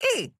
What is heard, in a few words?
AHurt